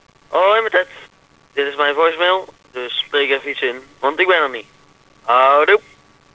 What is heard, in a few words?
voicemail